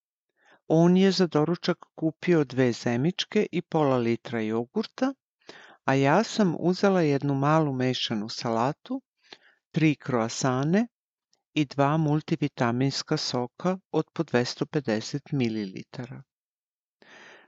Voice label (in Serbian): audio srpski Isli smo u kupovinu